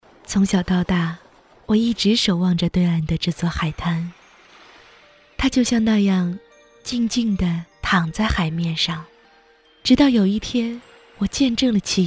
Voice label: Çince Kadın

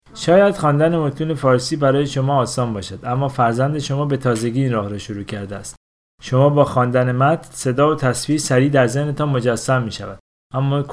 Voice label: Farsça

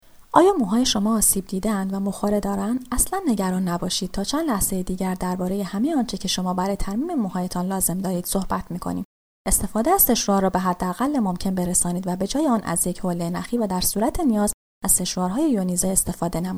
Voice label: Farsça Kadın

